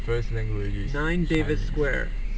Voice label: Remote Babble Address